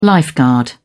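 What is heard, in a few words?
"lifeguard", British English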